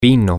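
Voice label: wine, spanish, male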